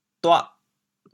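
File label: "duah8", Teochew, chaoyang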